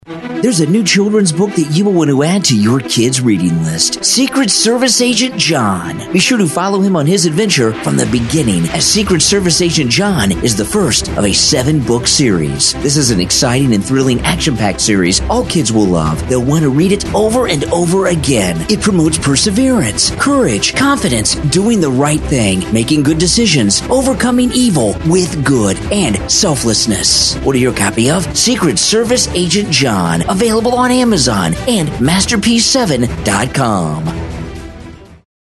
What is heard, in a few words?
Radio Ad; SECRET SERVICE AGENT JON